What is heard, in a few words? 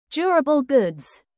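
"durable goods" British; Business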